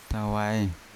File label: "tawae" snake, Yawa